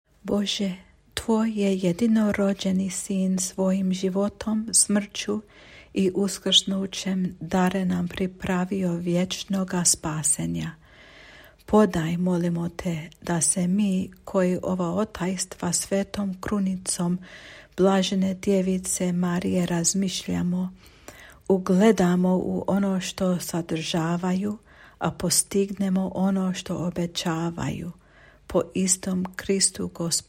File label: Final Prayer in Croatian